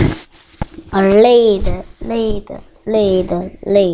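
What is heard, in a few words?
"lead", spoken word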